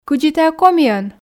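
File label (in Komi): кыдзи тайӧ комиӧн, Komi, female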